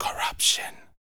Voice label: TALK, Vocal, SCI-FI; 5 SCI-FI CHZ

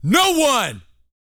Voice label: TALK FF, Vocal Planet R&B Dance SCI-FI; 5 SCI-FI CHZ

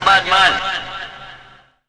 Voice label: Champion Badman, Vocals

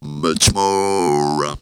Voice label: "much more", Funky Vocals; USB Soundscan vol.18; AKAI

